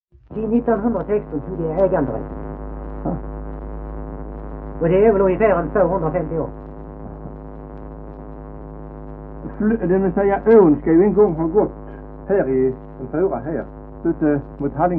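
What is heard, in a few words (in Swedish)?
Degeberga Skåne, svenska sagor dialekter; Folkminnen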